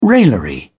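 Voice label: "raillery", British English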